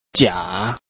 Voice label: "jia3", Chinese

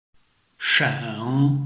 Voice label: "sheng3", Chinese

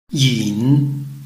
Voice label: "yin3", Chinese

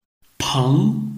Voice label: "peng2", Chinese

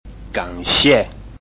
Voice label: "gan3 xie4", Chinese

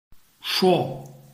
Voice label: "shuo4", Chinese

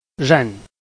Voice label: "ren4", Chinese

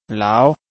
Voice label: "lao2", Chinese

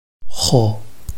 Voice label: "hou5", Chinese

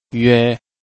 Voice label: "yue1", Chinese

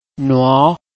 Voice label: "nuo2", Chinese

